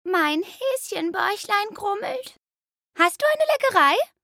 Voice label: Cute female